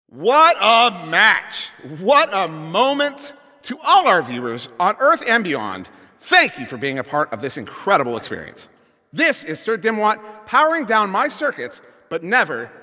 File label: Announcer Game Ends